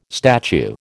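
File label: "statue", American English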